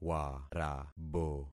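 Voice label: "warabo", concatenated syllables; Affixing experiment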